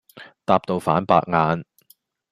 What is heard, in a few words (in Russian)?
Голоса, Гонконгский